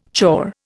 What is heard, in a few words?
"chore", Indian